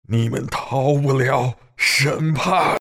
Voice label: death, zh; Chen; Dota 2

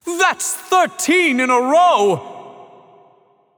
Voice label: Town Crier Spree 13 Kills; Paladins

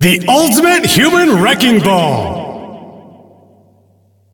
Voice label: Announcer kspree; Ringmaster

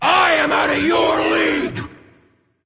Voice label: Taunt Directed; Sol

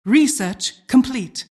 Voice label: announcer generic alert; Dota 2

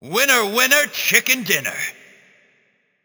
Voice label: Chicken Dinner, Announcer; Mecha Commander; Paladins

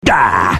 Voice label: anger; Pudge

